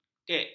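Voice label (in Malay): "kek", Malay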